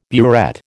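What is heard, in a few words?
"burette", American English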